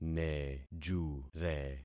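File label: "nejure", concatenated syllables; Affixing experiment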